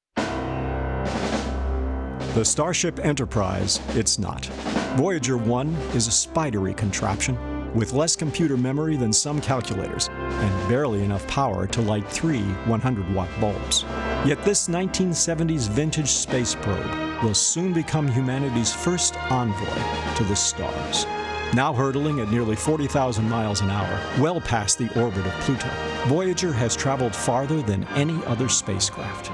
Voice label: narration; voyager